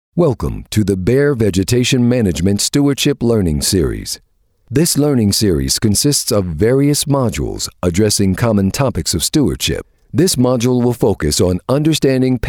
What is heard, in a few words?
US PHONE